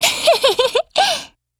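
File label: MOOD Japanese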